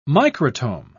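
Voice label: "microtome", British English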